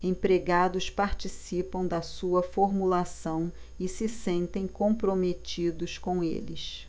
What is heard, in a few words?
PORTUGUESE BRAZIL